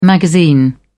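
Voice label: "magazine", British English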